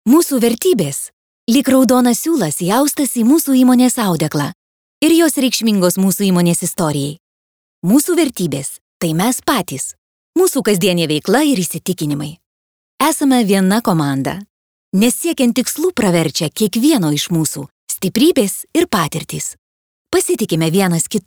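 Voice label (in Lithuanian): Voice over women Lithuanian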